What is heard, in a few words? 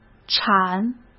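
"铲", Mandarin Chinese; hsk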